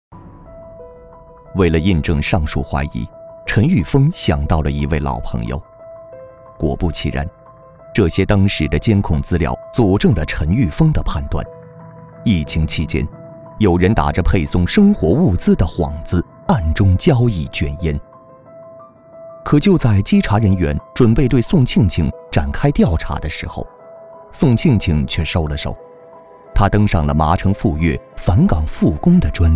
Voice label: 男 案件讲述; 摸哨

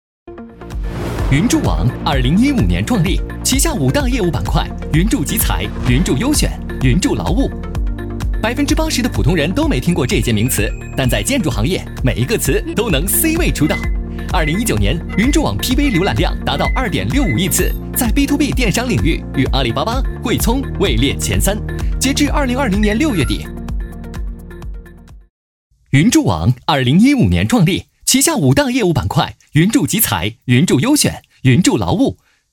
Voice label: 男 MG

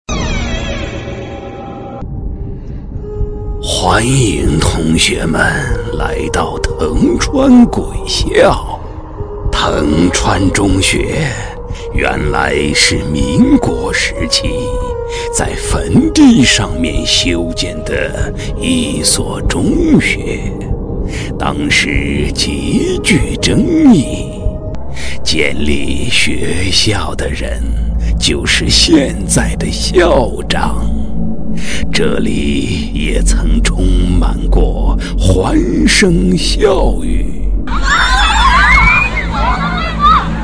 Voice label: 男 人物 密室逃脱

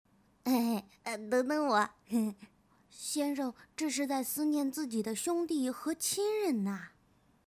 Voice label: 女 游戏动漫 台词

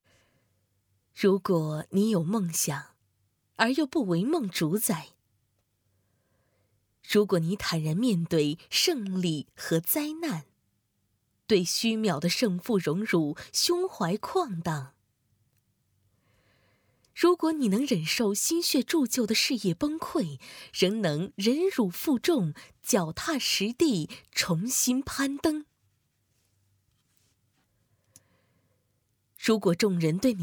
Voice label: 女 朗诵 梦想

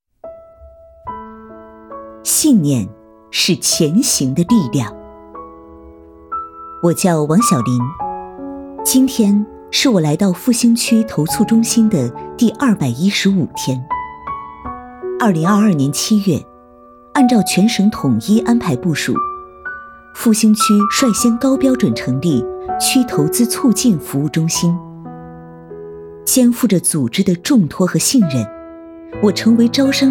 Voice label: 纪录片 信念人物自述

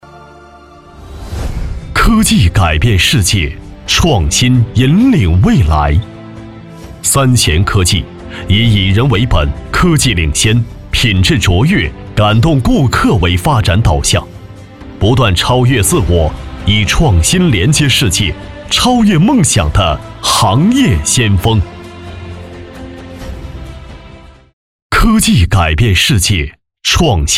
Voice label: 男 企业宣传; 三贤科技

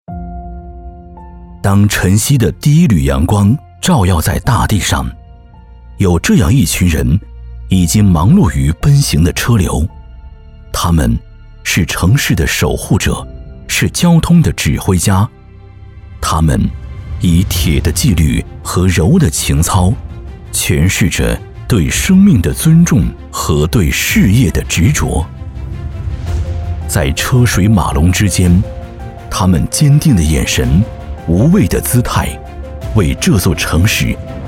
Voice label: 男, 公益宣传片, 青春走心

